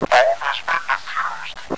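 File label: bomb defused, radio, zombie male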